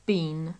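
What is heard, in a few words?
"been", British English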